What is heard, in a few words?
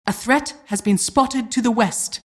announcer generic alert